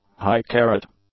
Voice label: "high-carat", English; adjectives